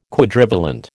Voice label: "Quadrivalent", American